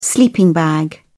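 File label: "sleeping bag", British English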